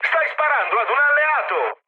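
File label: Italian player friendly fire mood med, speech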